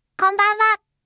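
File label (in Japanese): "konbanha", Japanese; aisatsu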